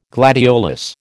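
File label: "gladiolus", American English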